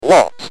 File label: "What", Speak & Spell UK